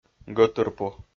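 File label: "гӧтырпу", Komi, male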